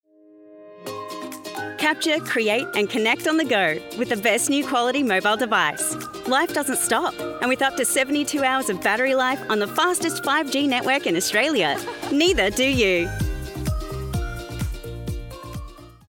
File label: commercial, telco, warm/friendly